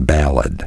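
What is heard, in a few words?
"ballad", spoken word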